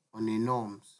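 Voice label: "on a norms", Nigerian Pidgin, male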